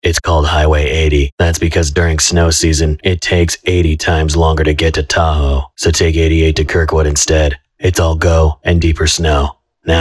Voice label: Radio; Kirkwood; Hwy 80